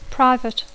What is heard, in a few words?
"private", English